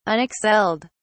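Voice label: "unexcelled", British English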